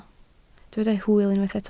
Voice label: "hwyl", Welsh